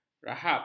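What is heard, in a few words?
"rahap", Malay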